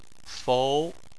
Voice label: "fou2", Chinese